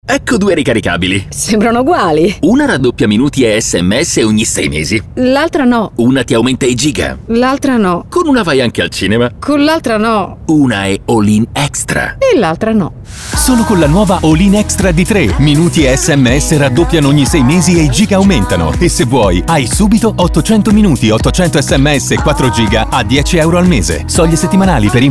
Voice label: spot radio